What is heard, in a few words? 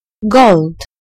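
"gold", British English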